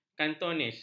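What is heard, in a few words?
"kantonis", Malay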